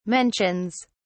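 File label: "mentions", British English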